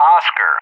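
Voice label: "Oscar", English; JTAC; Alphabet; DCS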